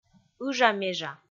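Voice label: "ыжа-межа", Komi, female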